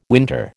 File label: "winter", American